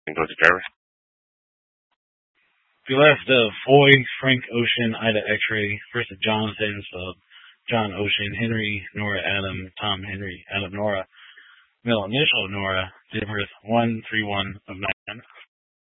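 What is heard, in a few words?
Troop 2A Dispatch, scanner audio recording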